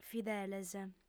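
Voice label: "fideles", Sardinian, female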